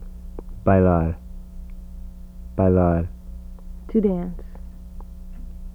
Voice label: Portuguese b; This is the voiced bilabial stop highlighted in the word bailar [bailaɹ] which means ‘to dance’